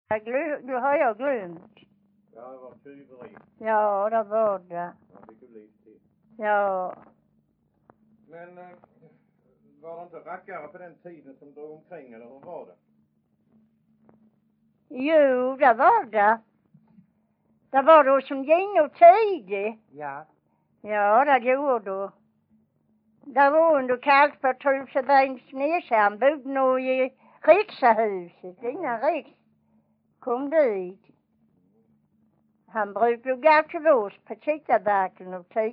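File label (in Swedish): Vånga Skåne, Svenska sagor, dialekter; Folkminnen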